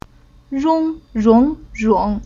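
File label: "Rong", Chinese syllable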